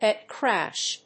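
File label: "HEAD CRASH", English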